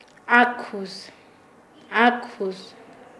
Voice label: Nasa Yuwe; ajo

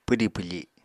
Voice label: "pwdi pwfi", Apatani

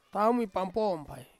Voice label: "taumi pampom pai" last year, Ansus

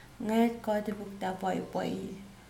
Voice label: when did you buy a hat, Mokilese

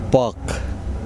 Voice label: sand, Kosraean

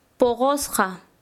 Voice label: "maduro", Quechua Chanka

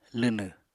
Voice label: "lwnw", Apatani

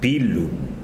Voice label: "oido", Tsesungun

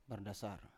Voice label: "bardasar" Biak

